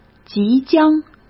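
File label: "即将", Mandarin Chinese; hsk; chinese-flashcards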